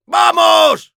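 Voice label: battlecry, Spanish; Demoman; ¡Vamos!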